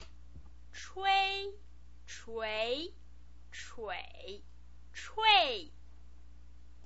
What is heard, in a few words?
"chui", Chinese pinyin